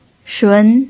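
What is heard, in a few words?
"shun", Mandarin Chinese pinyin, second tone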